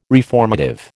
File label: "reformative", American